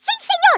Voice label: "Yessir", Brazil Portugal